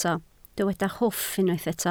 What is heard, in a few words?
"hwff", Welsh